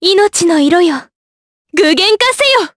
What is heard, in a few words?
Vox Skill, Jp; Talisha; kings raid